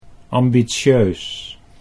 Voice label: "ambitieus", Dutch

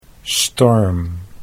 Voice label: "storm", Dutch

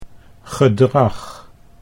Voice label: "gedrag", Dutch

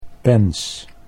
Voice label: "pens", Dutch

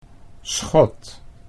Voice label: "schot", Dutch

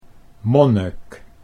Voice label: "monnik", Dutch

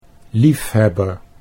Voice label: "liefhebber", Dutch

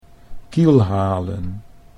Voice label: "kielhalen", Dutch

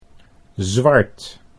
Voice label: "zwart", Dutch

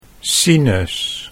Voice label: "sinus", Dutch

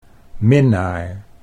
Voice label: "minnaar", Dutch

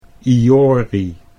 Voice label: "iorie", Dutch

